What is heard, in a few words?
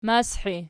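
"mashi" nominative, Archi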